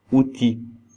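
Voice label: "outils", French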